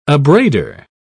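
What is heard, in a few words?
"abrader", English, British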